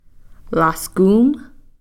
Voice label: "laskum", snake